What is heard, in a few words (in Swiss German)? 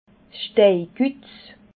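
"steikutz", Alsatian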